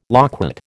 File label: "loquat", American